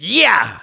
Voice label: positive vocalization, de